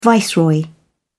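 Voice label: "viceroy", British English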